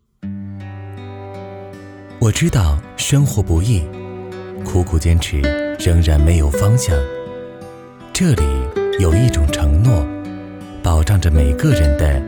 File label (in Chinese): 温暖旁白; 温暖旁白当好娘家人